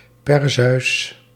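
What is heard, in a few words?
"Perseus", Dutch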